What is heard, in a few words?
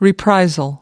"reprisal", us